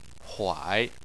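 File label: "huai", Chinese, third tone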